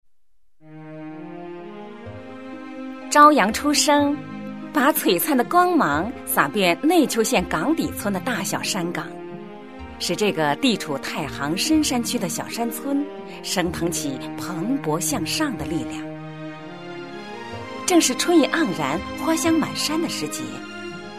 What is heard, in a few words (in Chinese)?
农业专题片, 国语女声配音; 富岗拓荒牛